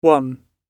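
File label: "won", British English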